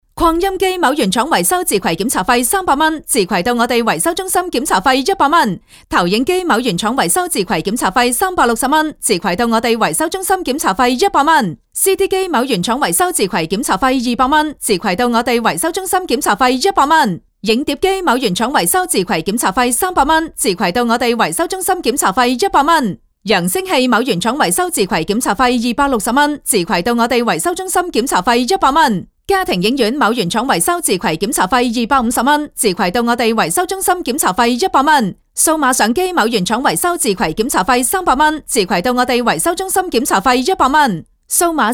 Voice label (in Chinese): 女【促销】特平维修, 粤女